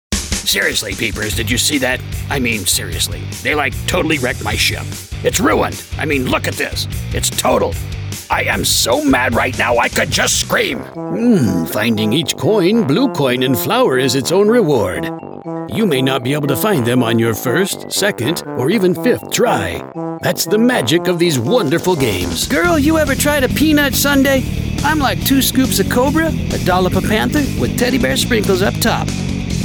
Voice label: Animation Demo